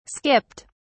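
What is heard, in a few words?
"skipped", British English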